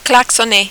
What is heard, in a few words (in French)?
"klaxonner", French